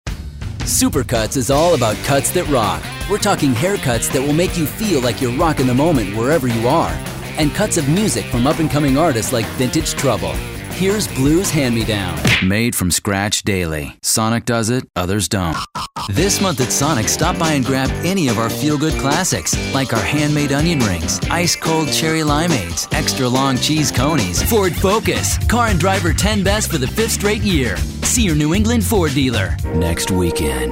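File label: commercial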